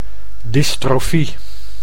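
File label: "dystrofie", Dutch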